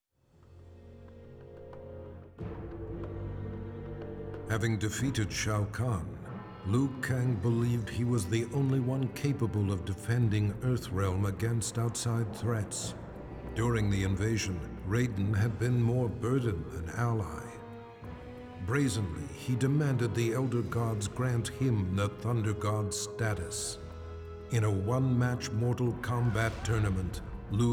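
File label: music voice over endings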